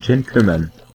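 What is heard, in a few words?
"gentleman", French, Normandie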